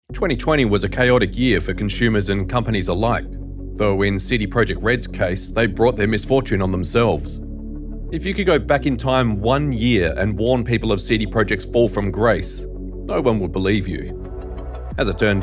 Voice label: Analytical Narration